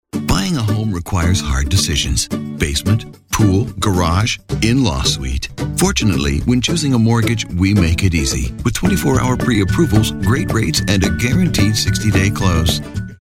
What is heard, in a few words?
Friendly Bank Ad